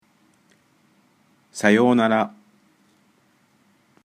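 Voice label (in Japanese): あいさつ, japonais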